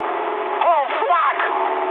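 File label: damaged anti-aircraft mood high, speech English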